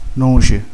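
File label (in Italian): "noce", Italian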